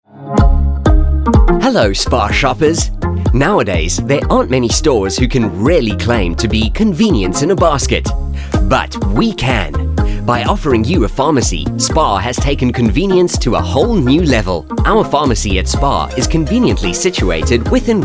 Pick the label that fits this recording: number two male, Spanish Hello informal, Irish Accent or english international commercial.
english international commercial